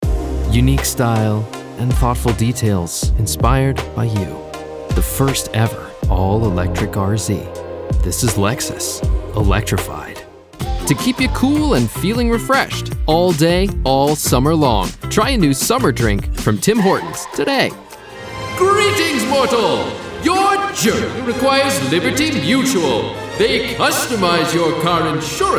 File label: Commercial